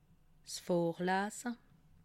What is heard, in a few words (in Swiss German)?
"vorlaase", alsace